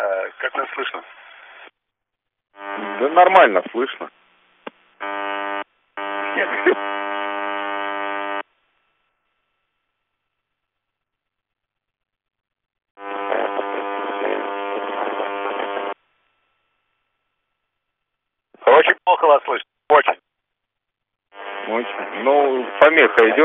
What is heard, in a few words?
Space to Ground; Expedition 39